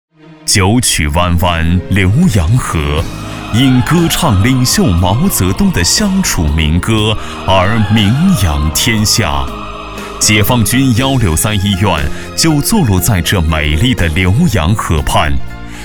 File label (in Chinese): 男 专题 医院; 解放军第163医院